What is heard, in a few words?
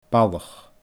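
"balach", Scottish Gaelic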